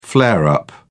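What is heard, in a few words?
"flare-up", British English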